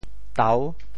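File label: "tau6", Teochew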